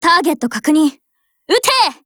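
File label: Japanese; Grizzly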